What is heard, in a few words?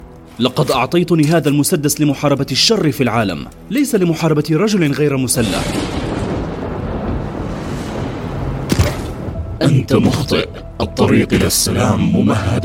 arabic male video game